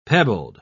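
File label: "pebbled", British English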